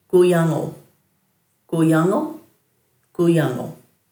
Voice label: "guyangal", Dhurga